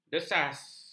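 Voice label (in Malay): "desas", Malay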